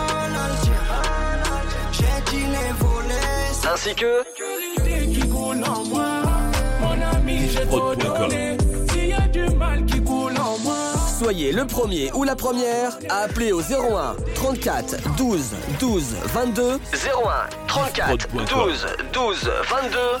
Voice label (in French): Promo Jeu Noel 100 euros